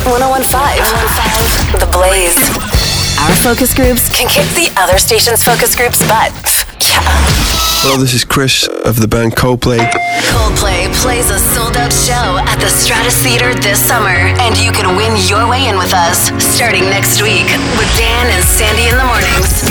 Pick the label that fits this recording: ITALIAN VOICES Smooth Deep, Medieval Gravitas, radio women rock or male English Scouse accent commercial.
radio women rock